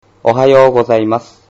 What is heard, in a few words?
"ohayou gozaimasu", Japanese